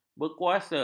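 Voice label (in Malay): "berkuasa", Malay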